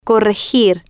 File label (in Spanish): "corregir", Spanish